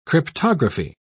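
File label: "cryptography", British English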